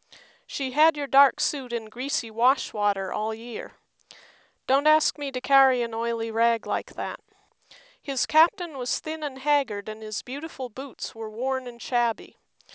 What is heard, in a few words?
clean speech